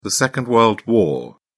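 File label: "second world war", British English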